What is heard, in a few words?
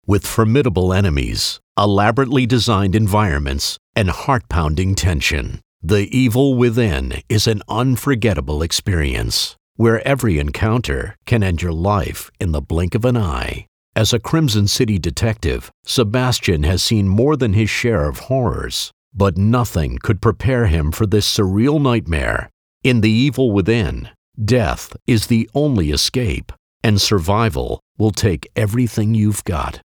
Trailer